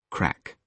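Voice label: "crack", British English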